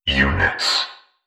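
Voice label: "units", male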